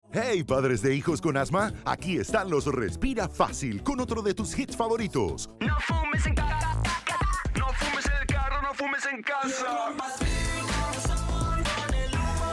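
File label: Dont Smoke and Vacuum, spanish; EPA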